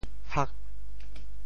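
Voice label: "hak8", Teochew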